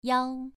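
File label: "yao2", Chinese pronunciation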